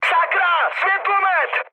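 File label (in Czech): Czech searchlight, mood high; speech; War Thunder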